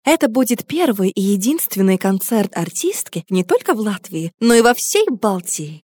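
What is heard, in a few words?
Russian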